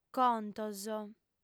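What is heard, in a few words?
"contos", female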